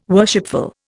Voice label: "worshipful", Indian